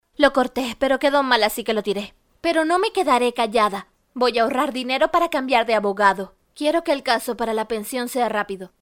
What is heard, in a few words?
locutora espanol neutro spanish american voiceover